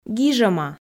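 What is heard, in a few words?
"гижӧма", Komi, female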